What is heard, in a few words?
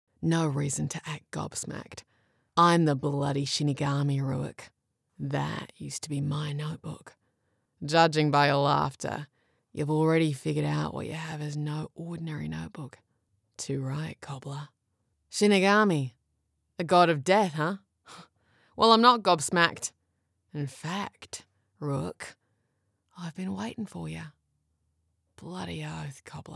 english australian movie trailers